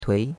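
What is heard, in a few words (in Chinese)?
"tui2" Chinese; Cours-de-Chinois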